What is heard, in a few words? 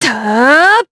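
Vox Casting, Japanese; Talisha; kingsraid audio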